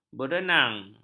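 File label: "berenang", Malay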